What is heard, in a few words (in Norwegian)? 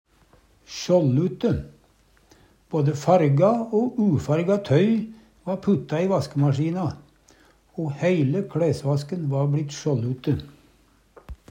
sjåldute, Numedalsmål